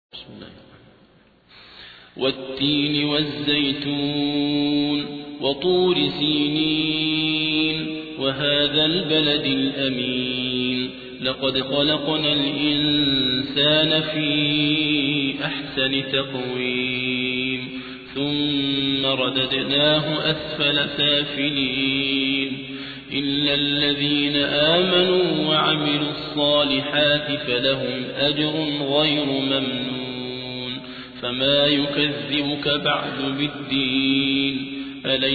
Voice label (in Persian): ترتیل; صابر عبدالحکم; سوره تین